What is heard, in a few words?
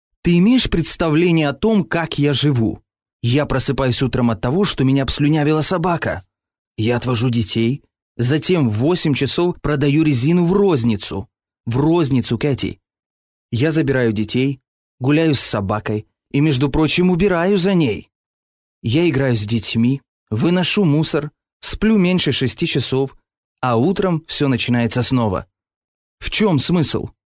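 Ukrainian, male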